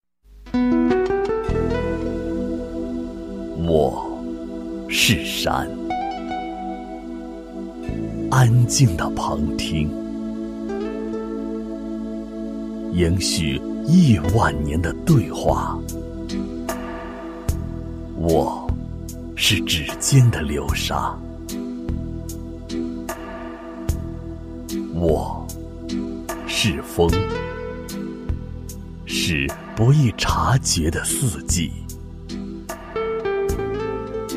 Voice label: 男 房地产; 华远; 大一山庄

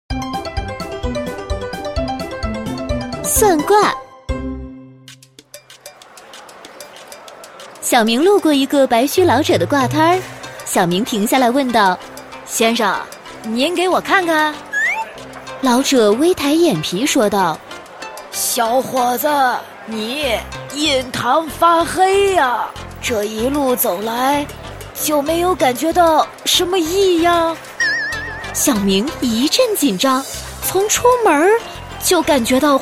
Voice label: 女 笑话 多角色, 国语女声配音; 算卦

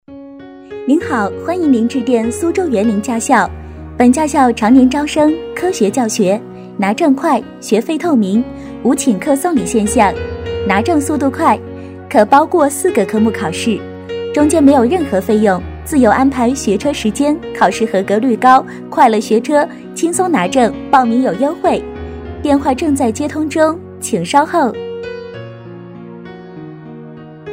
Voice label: 女, 彩铃, 驾校; 苏州园林驾校

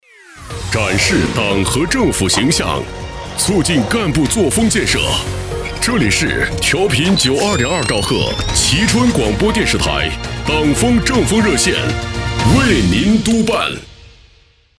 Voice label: 电台频宣 大气沉稳; 蕲春电台

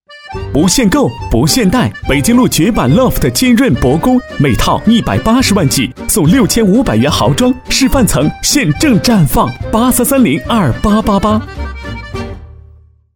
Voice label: 男 广告 宣传广告 青春活力; 金润宣传广告

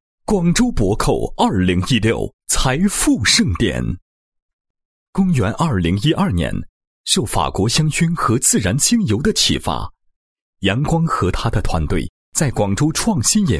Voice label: 男-解说词-财富盛典 VCR词稿, 配音案例; 广州珀蔻

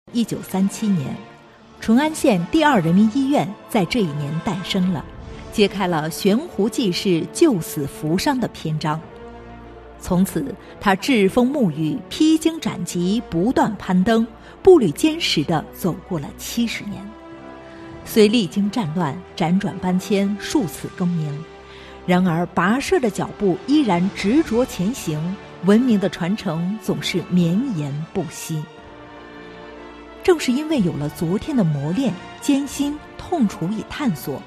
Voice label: 女, 政府专题; 自然-淳安医院

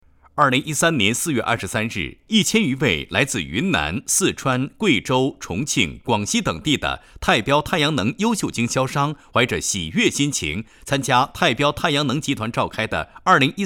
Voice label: 新闻 经销商大会

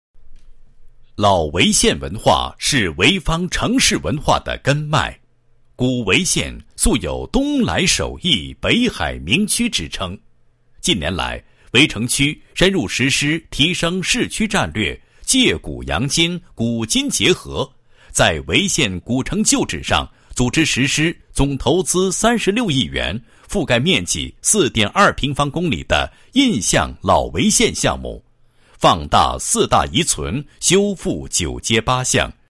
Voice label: 男-城市宣传, 配音案例; 印象老潍县

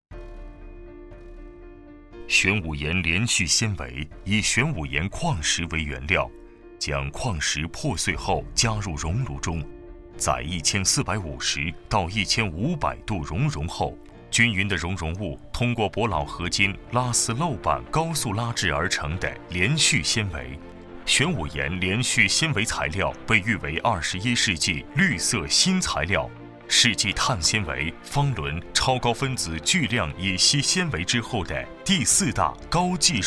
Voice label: 解说; 玄武岩; 大气磁性

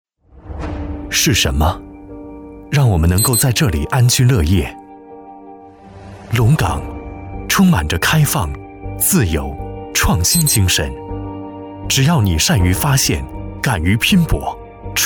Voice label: 房产广告, 宣传片; 龙岗区房博会

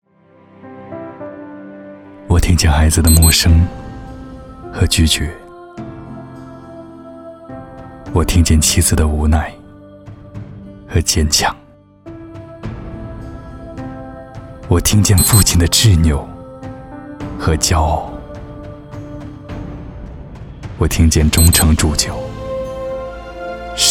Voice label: 男 品牌广告; 听见

